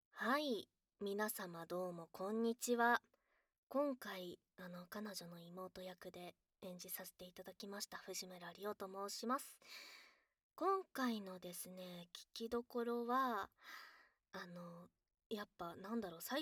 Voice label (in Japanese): フリートーク; ASMR